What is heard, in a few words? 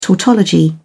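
"tautology", British English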